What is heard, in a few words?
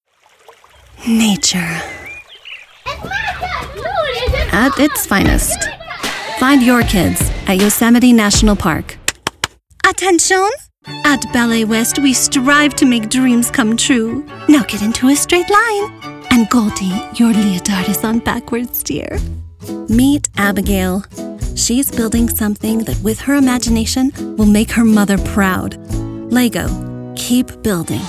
Commercial